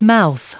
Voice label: "mouth", en-us

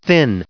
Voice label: "thin" en-us recite

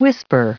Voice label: "whisper", en-us, recite

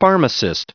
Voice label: "pharmacist", en-us

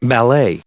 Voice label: "ballet", en-us

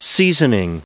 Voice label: "seasoning", en-us

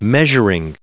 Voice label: "measuring", en-us

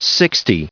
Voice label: "sixty", en-us

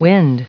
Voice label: "wind", en-us, recite

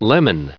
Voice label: "lemon", en-us

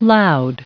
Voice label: "loud", en-us

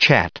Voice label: chat, en-us

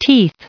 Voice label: "teeth" en-us recite

